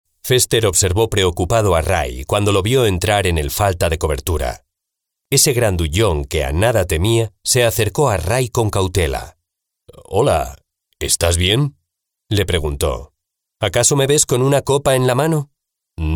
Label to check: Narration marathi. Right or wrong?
Wrong